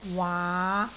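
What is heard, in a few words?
"waa5", Cantonese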